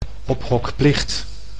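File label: "ophokplicht", Dutch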